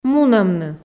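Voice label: "мунӧмны", Komi, female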